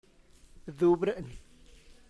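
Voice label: tlacochahuaya, Zapotec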